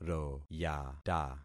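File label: "royata", concatenated syllables; Affixing experiment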